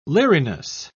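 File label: "leeriness", British English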